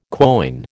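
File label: "quoin", American English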